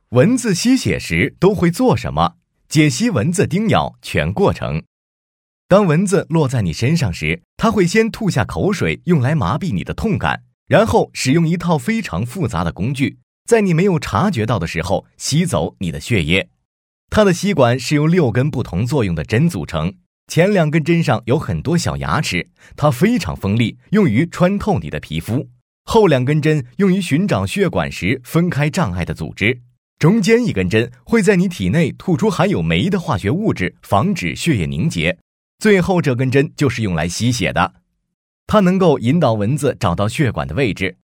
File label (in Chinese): 男, 自媒体, 科普解说